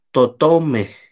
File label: "totomej", Náhuatl; pájaros; birds